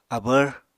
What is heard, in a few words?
"abvr", apatani